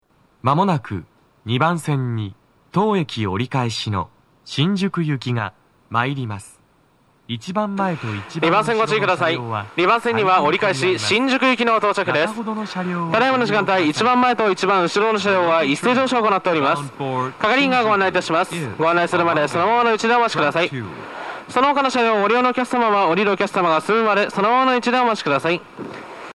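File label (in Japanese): 接近放送 男声, 平日朝ラッシュの収録で、駅員放送が被っています。; 池袋; 放送は「新宿行」です。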